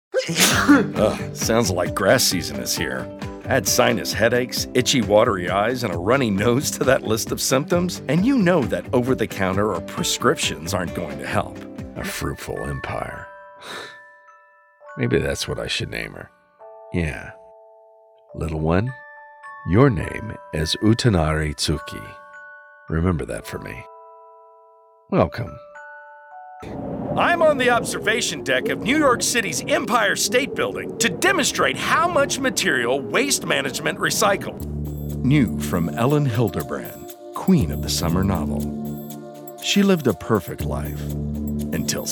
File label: TR Commercial